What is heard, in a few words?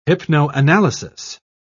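"hypnoanalysis", British English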